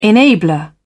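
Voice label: "enabler", British English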